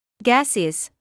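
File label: "gaseous", British English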